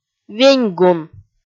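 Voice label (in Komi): "веньгун", Komi, female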